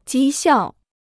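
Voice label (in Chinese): "讥笑", Chinese; jī xiào; se moquer; rire méchamment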